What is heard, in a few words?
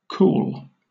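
"cool", British English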